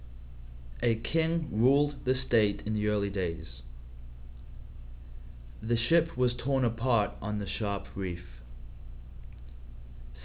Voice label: british, open speech; uk